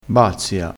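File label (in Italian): "bazzia", vocabolario dialettale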